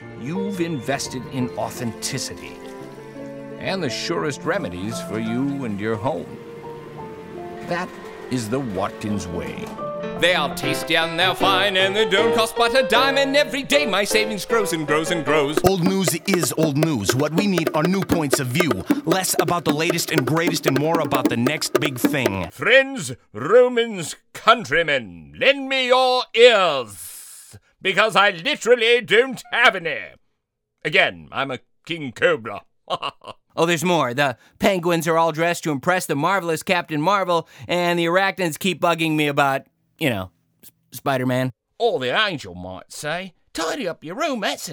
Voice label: Character Voice; A Voice for the Rest of Us